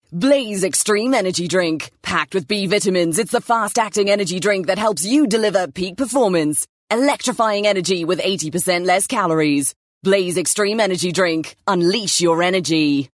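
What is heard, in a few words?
English South African, Commercial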